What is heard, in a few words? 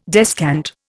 "descant", Indian